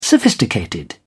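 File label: "sophisticated", British English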